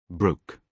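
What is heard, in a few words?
"broke", British English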